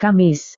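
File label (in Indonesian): "kamis", Indonesian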